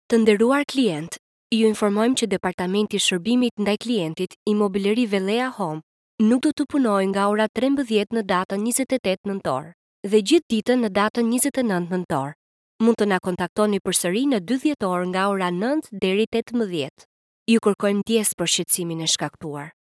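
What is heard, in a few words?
Albanian, female, IVR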